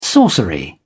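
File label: "sorcery", British English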